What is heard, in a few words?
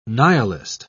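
"nihilist", British English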